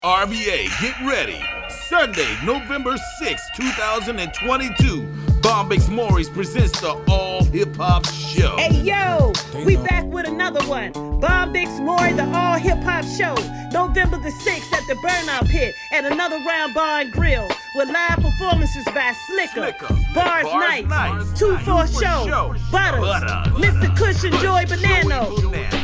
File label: Radio Promo; All Hip Hop Show